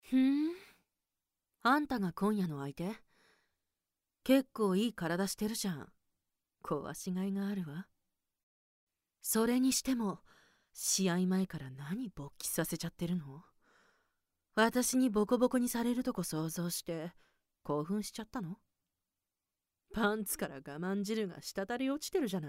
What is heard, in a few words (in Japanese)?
罵倒お姉さん